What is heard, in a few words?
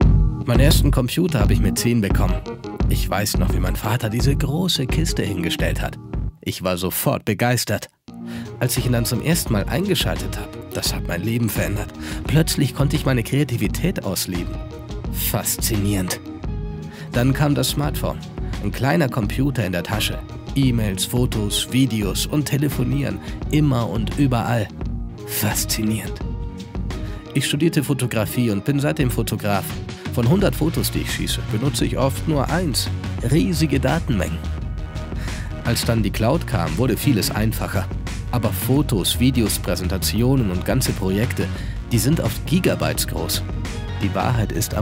TESTIMONIAL; Western Digital